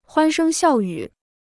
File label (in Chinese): "欢声笑语", Chinese